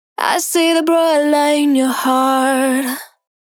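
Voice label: 123 A minor lead female vocal dry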